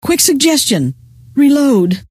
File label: reload, scientist female